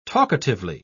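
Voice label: "talkatively", British English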